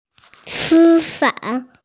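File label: "si1 fa3", Mandarin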